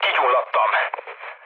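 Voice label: on fire, mood med, Hungarian; War Thunder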